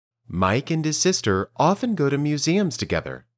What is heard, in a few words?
Dictation